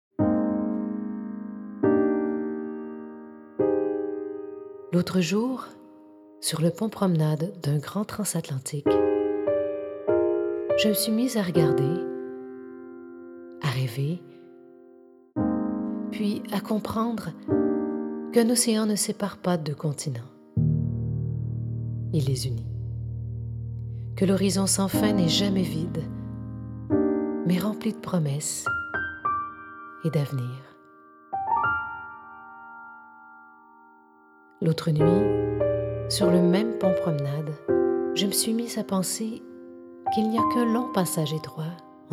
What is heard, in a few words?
Narration